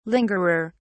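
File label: "lingerer", British English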